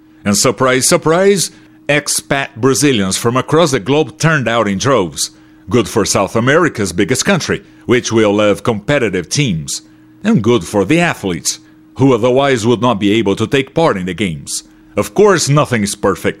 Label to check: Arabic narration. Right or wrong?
Wrong